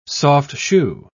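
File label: "soft-shoe", British English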